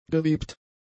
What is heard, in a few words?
"gewebt", German; adjectives